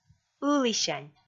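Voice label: "ылісянь", Komi, female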